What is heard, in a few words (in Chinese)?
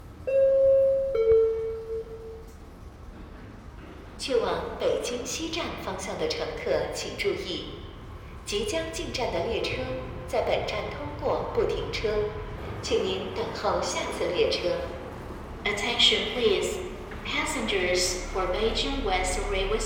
7号线 北京西站方向通过不停车